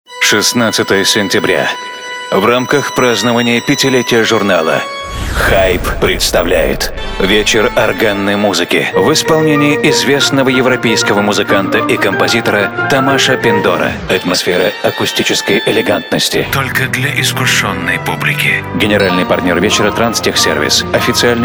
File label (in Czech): reklama; Choir Ignis